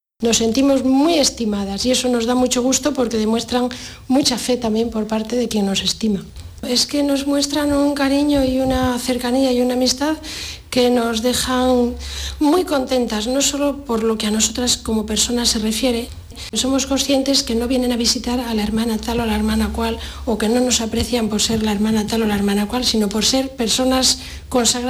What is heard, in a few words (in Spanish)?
testimonio monja de clausura carmelita; MONASTERIO ALTO MALIAÑO CAMARGO